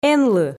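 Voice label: "энлы", Komi, female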